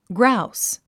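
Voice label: "grouse", English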